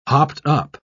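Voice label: "hopped-up", British English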